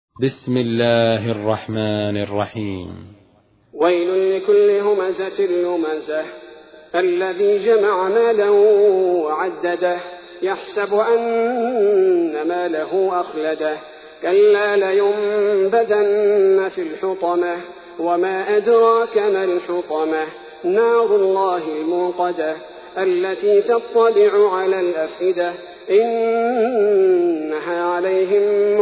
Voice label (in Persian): ترتیل سوره همزه; الثبیتی